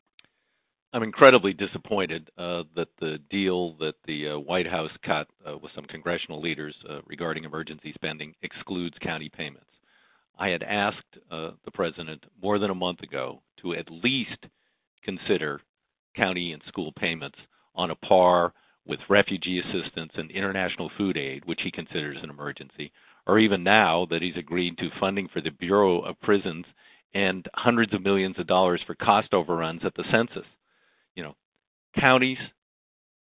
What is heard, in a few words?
voice message